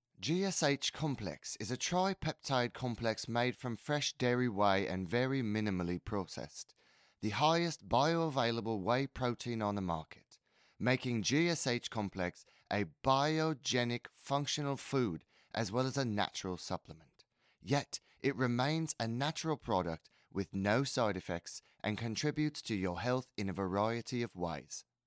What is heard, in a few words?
Satisnacktion (Scottish/American); Cymcorp US